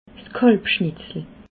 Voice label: "kalbschnitzel", Alsace

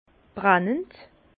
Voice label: "brennend", Bas Rhin; vin; brûlant

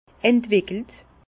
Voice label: "entwickelt", Alsace

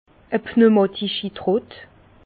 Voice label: "pneumatischi", Bas Rhin; vin; pressoir pneumatique

